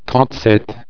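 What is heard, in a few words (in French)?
"trente sept", French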